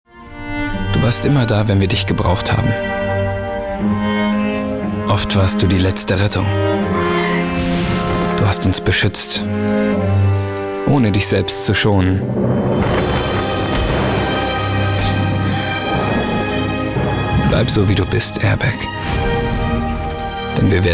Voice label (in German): Werbung, Sanft